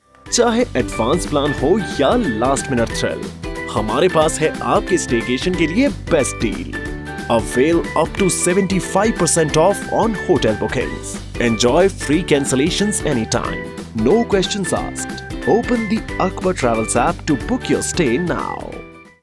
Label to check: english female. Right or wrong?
Wrong